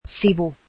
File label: page, finnish